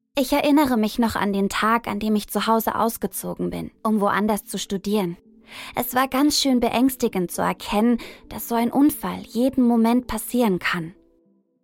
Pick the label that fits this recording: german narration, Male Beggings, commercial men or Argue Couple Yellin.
german narration